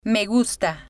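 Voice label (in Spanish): me gusta, Spanish, female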